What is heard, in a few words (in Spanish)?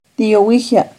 Ya nos vamos-Tiyohuihyah, Náhuatl; NAHUATLAHTOLLI